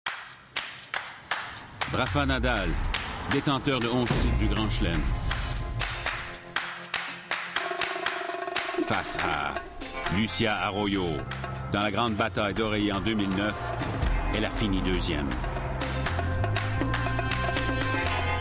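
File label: Canadian French Commercial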